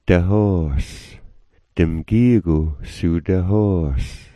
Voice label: "dahos", smalgyax

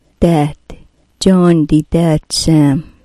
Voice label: "daat", smalgyax

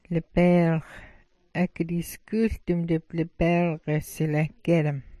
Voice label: "labaalx", smalgyax

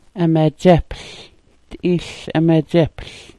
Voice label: "amadzapl", Smalgyax

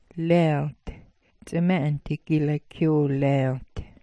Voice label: "laalt", smalgyax

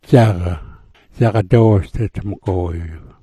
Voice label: "dzaga", smalgyax

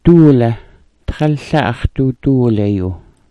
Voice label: "duula", smalgyax

